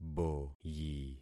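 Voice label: "boyi", concatenated syllables; Affixing experiment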